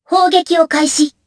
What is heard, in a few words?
Vox Skill jp; Kara; kings raid